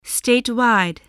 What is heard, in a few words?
"statewide", voice